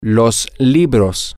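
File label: the books, Spanish, male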